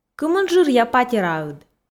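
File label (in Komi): кымын жыръя патераыд, Komi, female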